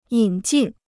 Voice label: "引进", Chinese

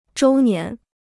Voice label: "周年", Chinese

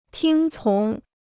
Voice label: "听从", Chinese

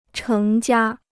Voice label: "成家", Chinese

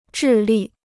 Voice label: "智力", Chinese

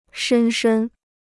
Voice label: "深深", Chinese

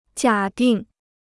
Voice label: "假定", Chinese

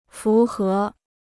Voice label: "符合", Chinese

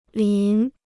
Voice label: "淋", Chinese

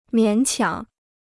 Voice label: "勉强", Chinese

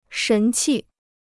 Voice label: "神气", Chinese

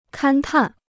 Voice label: "勘探", Chinese

